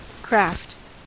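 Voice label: "craft", US English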